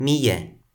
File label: "mije", Toki Pona